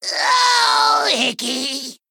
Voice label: Male Gnome Death

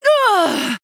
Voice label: Female Human Death

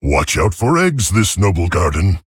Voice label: Male Tauren HAPPY NOBLEGARDEN

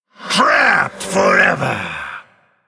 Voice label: Male Undead Attack

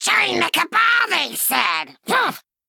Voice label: Male Imp

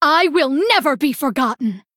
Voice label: Female Blood Elf

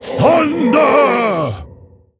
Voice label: Male Mogu; STORMCRACK

